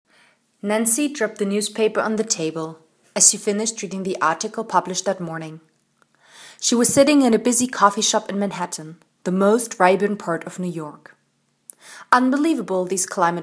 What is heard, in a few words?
English; wissenschaftliche kurzgeschichten